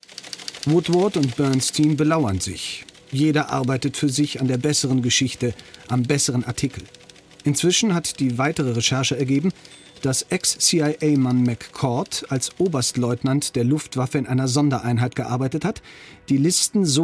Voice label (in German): Doku; Watergate